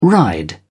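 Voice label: "ride", British English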